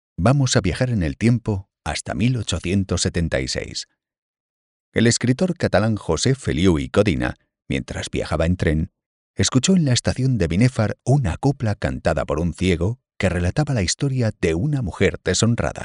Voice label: Tour Guide, Audioguide Museum, deep, trustworthy, confident Male Voice; La Dolores